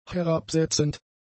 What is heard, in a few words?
"herabsetzend", German; adjectives